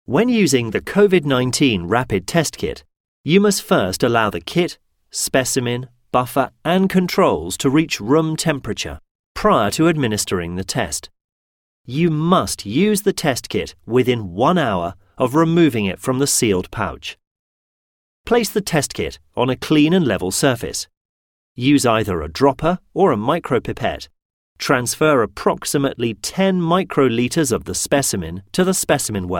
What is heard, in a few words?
male british voiceover medical